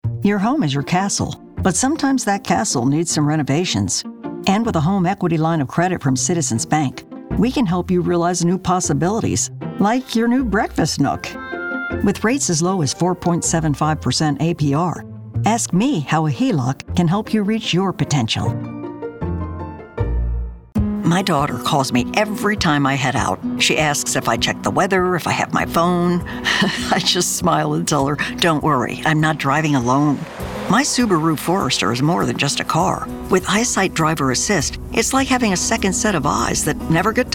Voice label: voiceover